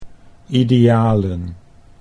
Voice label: "idealen", Dutch

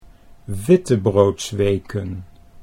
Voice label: "wittebroodsweken", Dutch

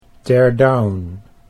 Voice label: "teardown", Dutch English

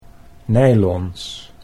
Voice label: "nylons", Dutch

